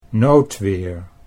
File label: "noodweer", Dutch